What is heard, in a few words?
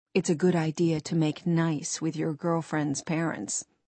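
wheel, British English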